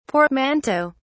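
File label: "portmanteaux", British English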